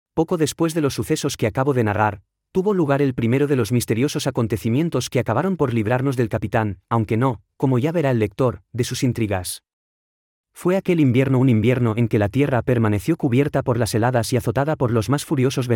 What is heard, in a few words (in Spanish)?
Voz sintetica neutra; Intelivoz; La Isla el Tesoro